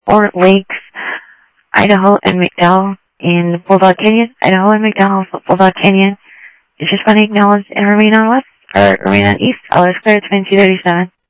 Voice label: South Scottsdale Sheriff, scanner audio